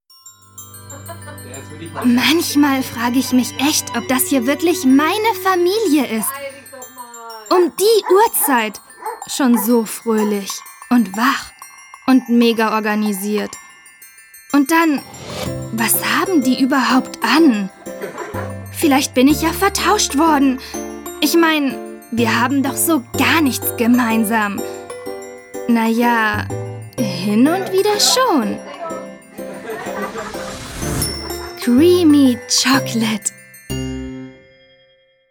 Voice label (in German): OFF, frauen; CreamyChocolate